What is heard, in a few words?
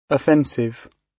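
"offensive", British; Crime and punishment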